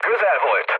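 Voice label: Hungarian bomb failure mood low, speech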